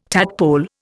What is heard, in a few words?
"tadpole", Indian